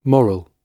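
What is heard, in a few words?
"morrel", British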